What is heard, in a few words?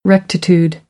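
"rectitude", American English; AmericanBritish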